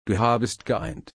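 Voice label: "einen", German, slow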